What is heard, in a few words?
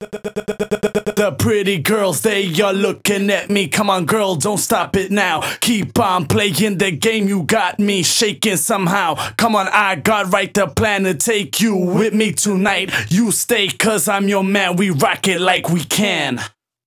Rap To The Top Vocals WET, 128 BPM